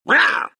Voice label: anger; tinker

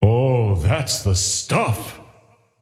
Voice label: scepter announce in game; dota

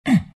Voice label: treant pain

